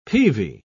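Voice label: "peavey", British English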